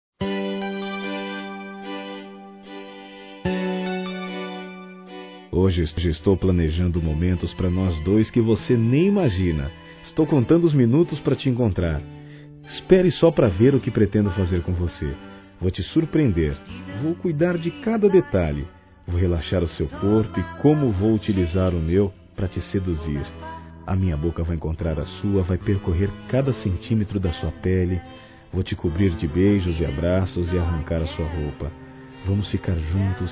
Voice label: Romantica Mascul, Telemensagens; Sedução Realidade da Mulher Mais Amada; Telemensagens 2017; Românticas

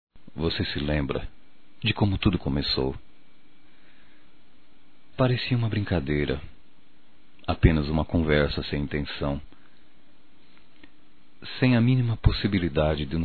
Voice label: NAMORO POR TELEFONE QUE DEU CERTO, Românticas, masc

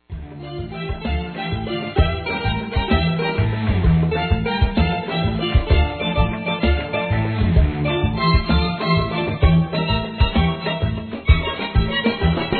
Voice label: Aniversário Prima Feminino; Aniversários; Aniv Familiar

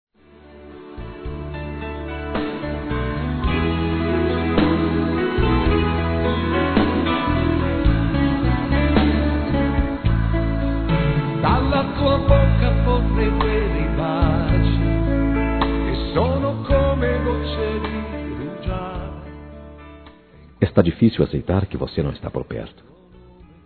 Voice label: Ainda não te Esqueci Masculino, Telemensagens

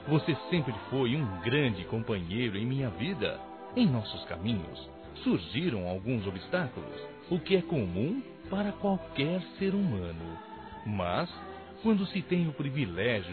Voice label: NATAL P AMIGO, masc; Telemensagens; Natal e Ano novo

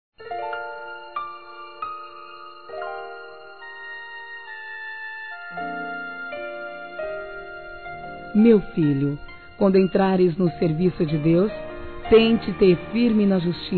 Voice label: Trilha NOVO CONVERTIDO, Gospel, fem; Telemensagens; Telemensagens 2017

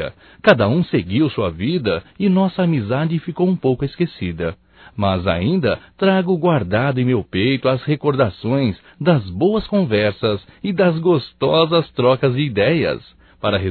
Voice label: SAUDADES AMIGA, Só Voz, masc; Telemensagens; Telemensagens 2017

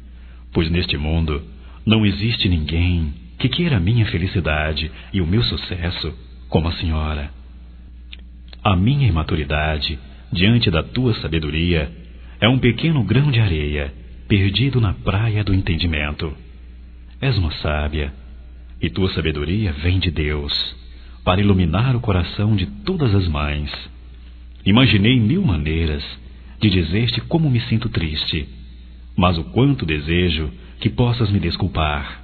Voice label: PERDÃO M; Desculpas; masc; Telemensagens 2017

